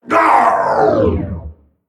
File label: Male Mech Death